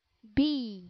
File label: "bee", English